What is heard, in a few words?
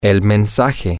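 the message, spanish, male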